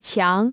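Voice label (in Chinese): "墙" Chinese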